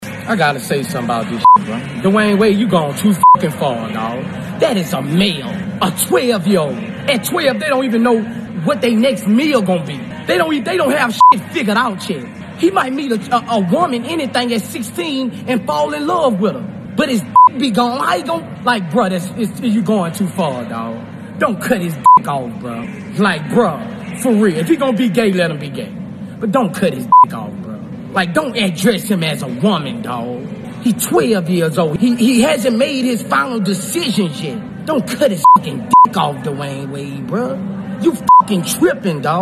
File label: Rant